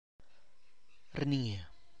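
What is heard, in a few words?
Tlacochahuaya Zapotec; ugly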